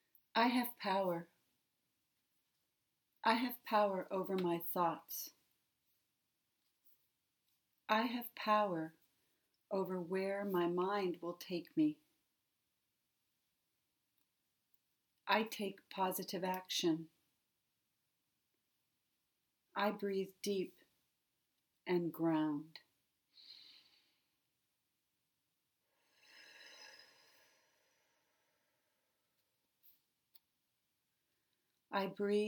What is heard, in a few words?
meditation to calm fear and anxiety